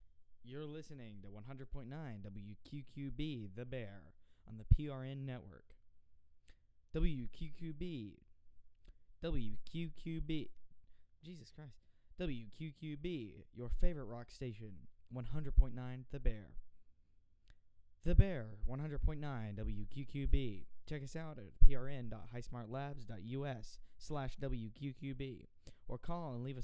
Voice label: spots ads